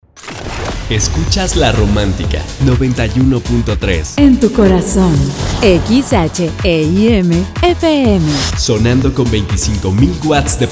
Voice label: spanish mexican promos

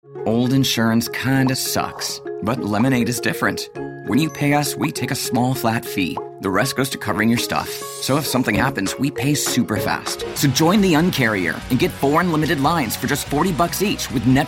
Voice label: english american commercial; canny voice actors

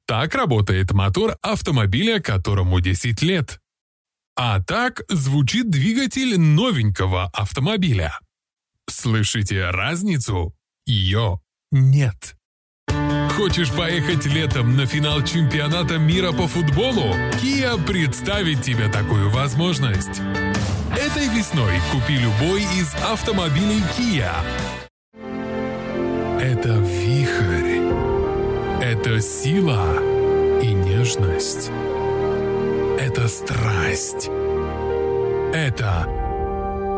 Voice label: russian commercial

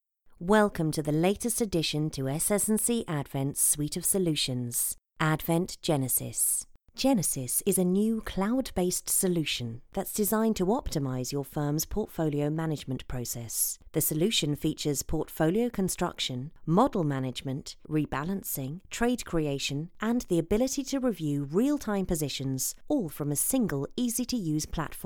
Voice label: English British commercial; english british voice actors

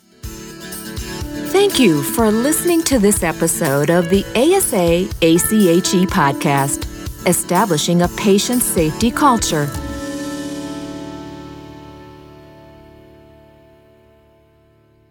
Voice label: english american podcasts

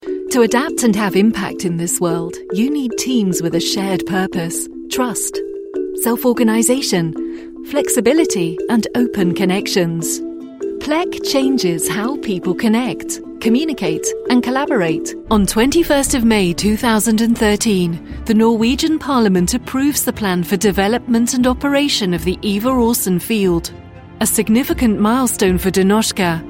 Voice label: english british corporate videos

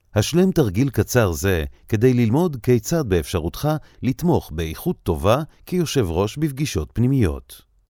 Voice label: hebrew promos

